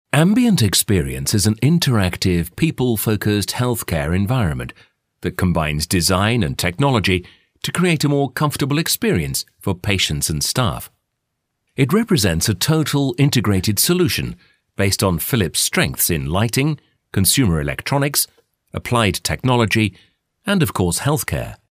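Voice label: British English, narration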